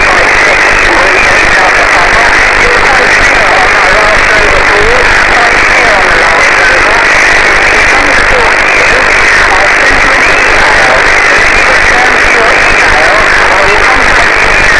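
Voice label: with QRM on frequency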